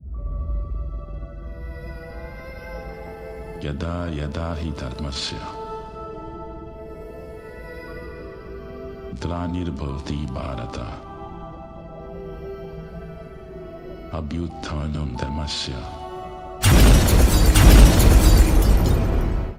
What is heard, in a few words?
Dialogue Ringtone; Kalki